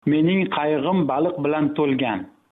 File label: hovercraft, uzbek